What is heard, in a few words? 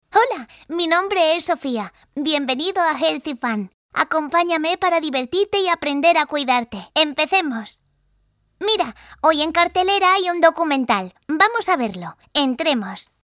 locutora niña spanish child voiceover